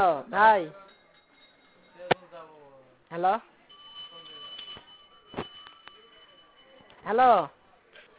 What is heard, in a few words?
Auto Call Record